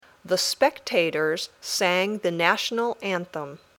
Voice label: Dictation